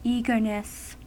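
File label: "eagerness", US English; pronunciation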